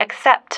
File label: "accept", female